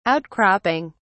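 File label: "outcropping", British English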